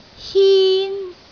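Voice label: "hin1", Cantonese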